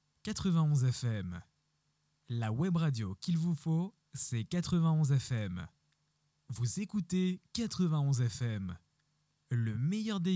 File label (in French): Voix Off